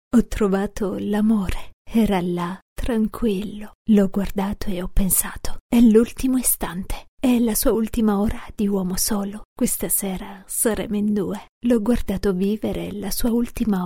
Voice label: female italian voice over character

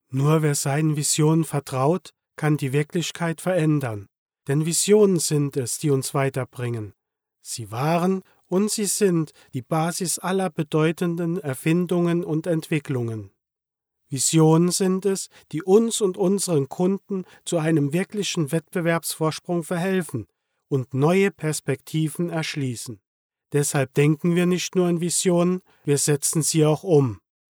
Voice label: male german voice over character

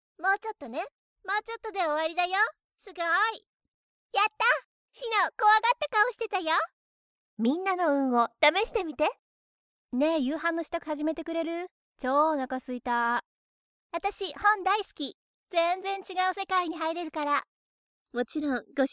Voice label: female japanese voice over character

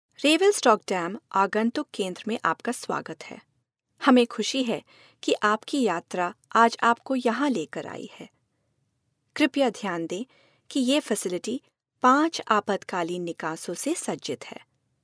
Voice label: female hindi voice over narrative

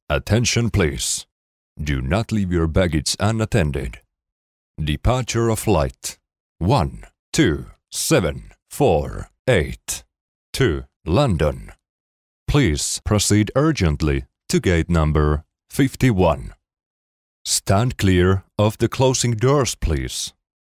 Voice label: male finnish voice over english

